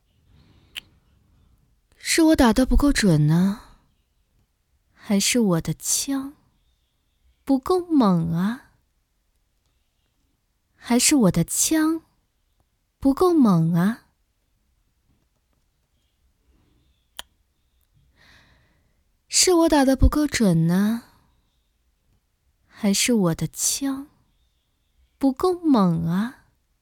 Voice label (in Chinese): 御姐试音